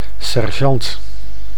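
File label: "sergeant", Dutch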